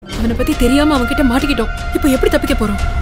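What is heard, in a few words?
Dialogue Ringtone; Kannum Kannum Kollaiyadithaal; Ritu Varma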